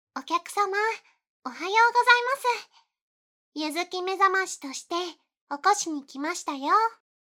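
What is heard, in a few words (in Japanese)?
目覚まし（店員っぽく）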